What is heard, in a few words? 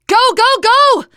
emphatic go, teen girl